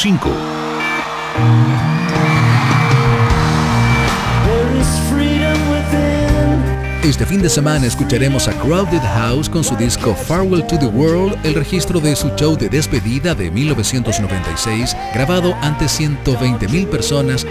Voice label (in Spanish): promo en vivo